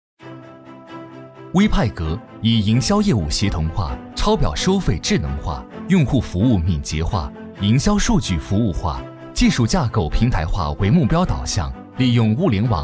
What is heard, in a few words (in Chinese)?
男 科技感 科技宣传; 威派格